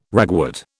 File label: "ragwort", British